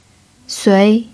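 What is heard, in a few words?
"sui2", Mandarin pinyin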